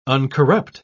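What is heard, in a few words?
"uncorrupt", British English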